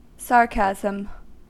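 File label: "sarcasm", American English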